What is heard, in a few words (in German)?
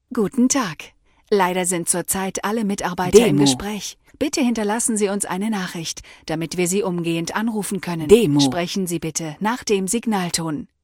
Ansage Alle Leitungen belegt mit Hinweis